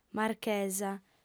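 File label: "marchesa" Sardinian, female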